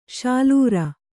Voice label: "ಶಾಲೂರ", Kannada

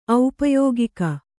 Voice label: "ಔಪಯೋಗಿಕ", kannada

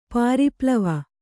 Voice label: "ಪರಿಪ್ಲವ", kannada

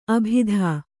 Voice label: "ಅಬುದಿ", Kannada

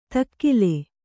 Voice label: "ದಕ್ಕಲು", Kannada

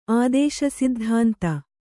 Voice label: "ಆದೇಶಸಿದ್ಧಾಂತ", Kannada

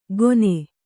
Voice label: "ಗೊನೆ", Kannada

